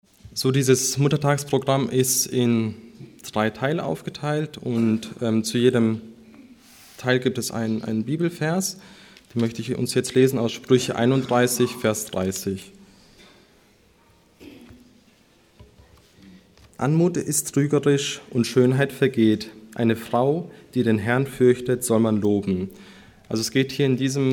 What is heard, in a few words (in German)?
Ansage